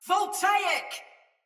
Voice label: Attack Soldier Mace Agent Female; Ode Tech; Sinecure; Battle; Sinecure Melee

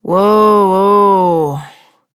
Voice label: Horse Stop Walk Adult; Duviri

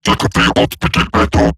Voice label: Player Spotted, Robot Large; Warframe; Eximus Corpus Robotic Large; Battle Barks

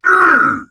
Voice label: Pain Heavy; Warframe; Dax Heavy; Battle Barks

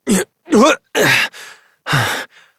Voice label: Horse Dismount Adult; Duviri

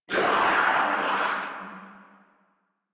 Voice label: Death Sniper, en

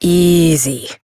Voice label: Horse Calm Adult; Duviri

